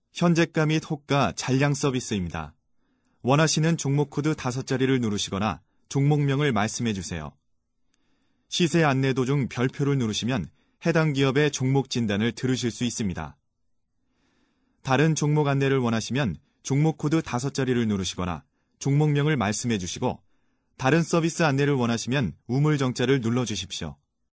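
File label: Korean, male, ARS; 남성 (Male); 광고; 나레이션; 캐릭터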